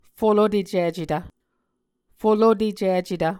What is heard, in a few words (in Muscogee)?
"folotecicetv", Muscogee